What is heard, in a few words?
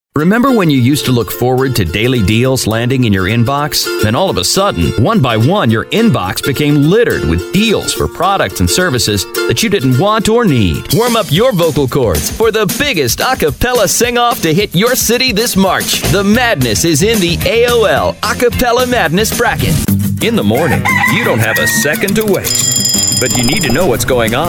US English Male